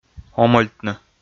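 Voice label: "омӧльтны", Komi, male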